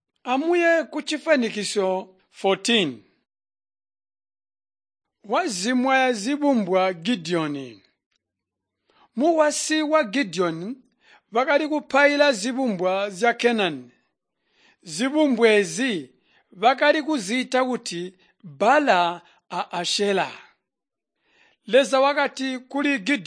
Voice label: tonga plateau, drama; chitonga bsz nt drama

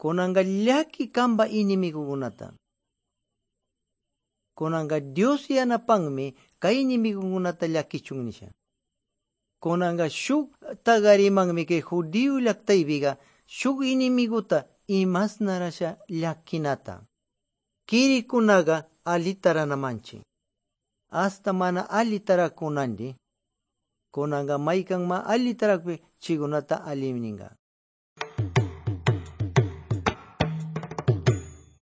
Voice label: good news quichua de pastaza

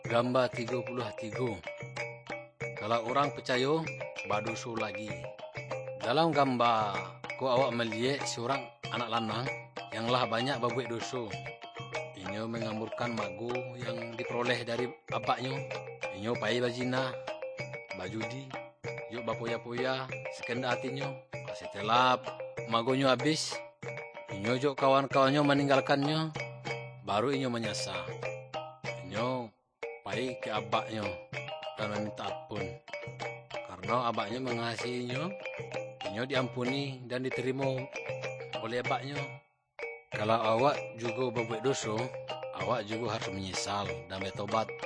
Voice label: good news minangkabau muko muko; kaba ilo

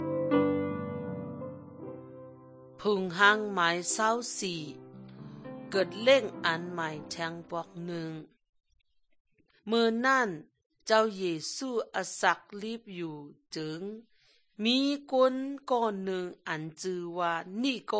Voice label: good news shan eastern, drama

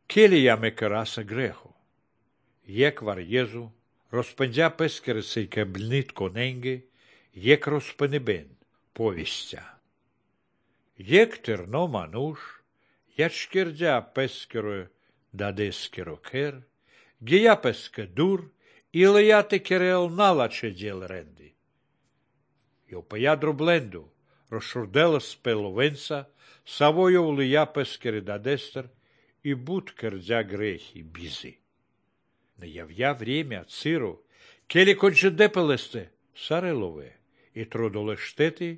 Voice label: good news baltic romani

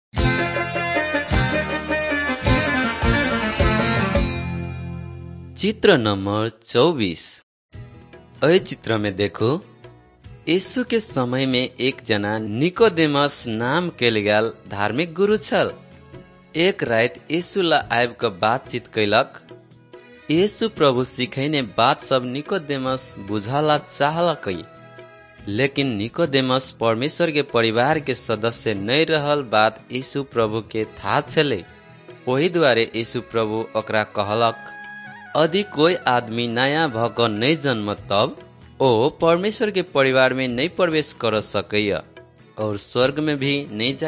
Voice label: maithili thetiya; wycliffe bible translators inc nt drama; banhiyaa khabar good news

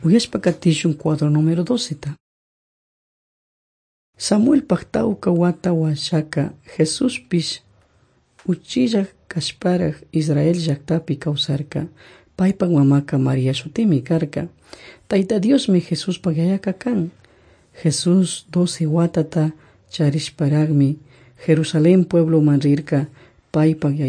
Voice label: servants of god quichua chimborazo

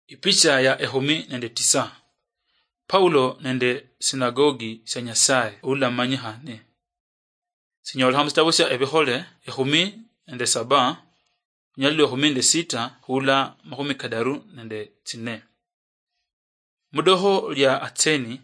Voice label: acts of the holy spirit luyia marachi, drama

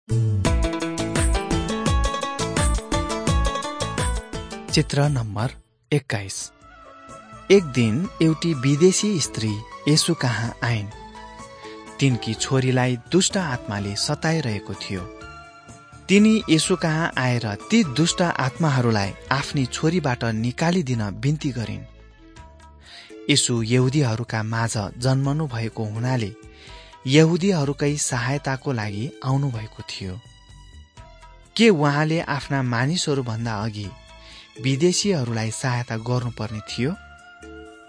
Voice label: jesus teacher and healer nepali

